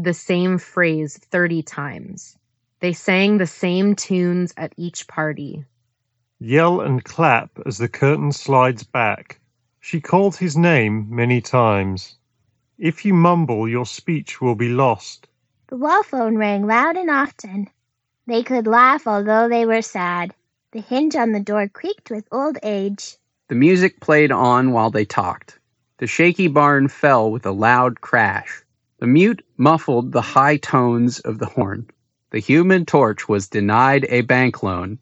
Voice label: Ideal microphone sample; Steelseries Arctis Gamebuds